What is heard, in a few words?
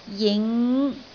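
"jing5", Cantonese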